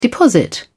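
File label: "deposit", English, British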